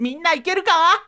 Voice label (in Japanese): shonen minnaikeruka